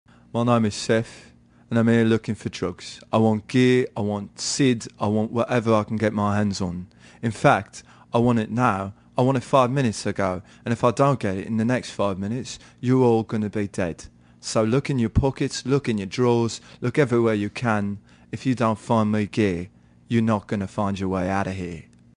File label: English Cockney accent; the drug-mugging